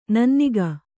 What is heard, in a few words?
"ನನ್ನಿಗ", Kannada; nanniga; noun; = ನನ್ನಿಕಾರ.